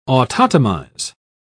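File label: "autotomize", English, British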